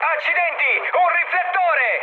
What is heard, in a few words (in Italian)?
searchlite, mood high, speech it; War Thunder